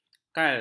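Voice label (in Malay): "kal", Malay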